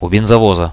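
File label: at the fuel truck, Russian, radio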